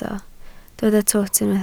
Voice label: "twt", Welsh

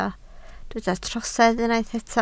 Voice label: "trosedd", Welsh

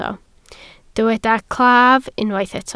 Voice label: "claf", Welsh

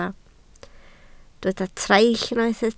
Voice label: "traill", Welsh

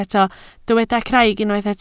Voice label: "craig", Welsh

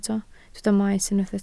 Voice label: "maes", Welsh

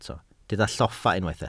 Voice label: "lloffa", Welsh

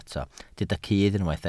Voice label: "cudd", Welsh

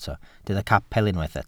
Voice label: "capel", Welsh

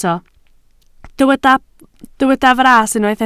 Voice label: "fras", Welsh

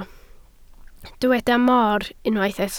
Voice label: "mor", Welsh

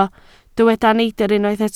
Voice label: "neidr", Welsh

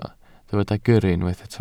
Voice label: "gyrru", Welsh

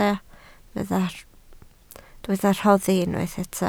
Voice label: "rhoddi", Welsh

